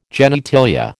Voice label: "genitalia", American